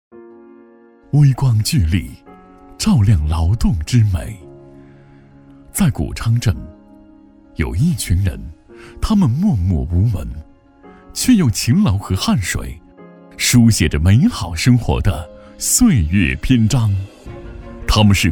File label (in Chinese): 男 人物讲述 年轻娓娓道来